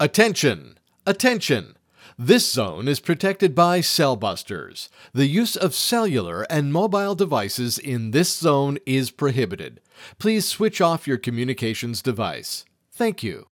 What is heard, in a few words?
Male; CellBusters